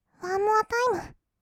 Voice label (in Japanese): ワンモアタイム, kawaii voice; 音ゲー